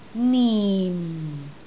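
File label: "nim4", Cantonese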